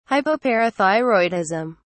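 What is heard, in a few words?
"hypoparathyroidism", British English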